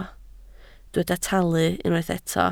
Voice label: "talu", Welsh